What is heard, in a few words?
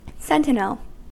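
"sentinel", American English; pronunciations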